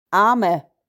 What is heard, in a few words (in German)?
"Aamer" "Eimer"